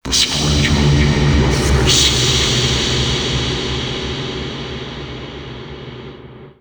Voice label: male, voice; FX; Mutekki Media; Dance Ultra Voice VOL-1

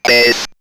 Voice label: Robot Bass, robot vox; X-Static Goldmine

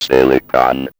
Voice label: Electro voice; 90 sSampleCDs; Coldcut's Kleptomania VOL1

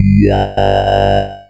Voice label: FORMANT, COMPUTER, Funky Vocals; USB Soundscan vol.18; AKAI

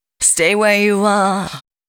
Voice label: female g# 140, short hooks, Dance Voice; Mutekki Media; Dance Ultra Voice VOL-1

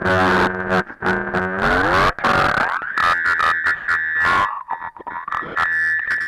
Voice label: EMS voice; Syntec; Wall of Sounds; TECHNO-BOX

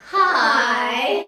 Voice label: "high", shouts; Best Service; Hyper Dance

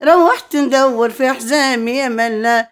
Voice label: OLD WOMAN, Arabian Traditions

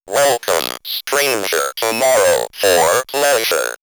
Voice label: Robot, robot vox; Welcome Stranger; X-Static Goldmine

